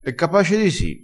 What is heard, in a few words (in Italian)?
"levassi da tutti i 55", crespinese